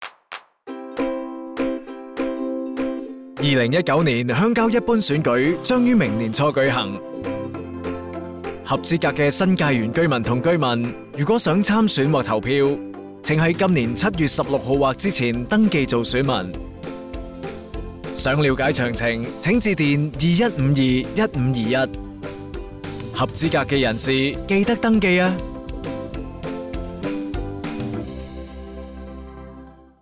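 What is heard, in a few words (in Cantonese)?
radio, Cantonese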